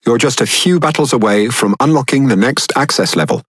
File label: Speech Access Level Unlock Few, EN GB; World Of Warships